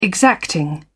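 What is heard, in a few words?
"exacting", British English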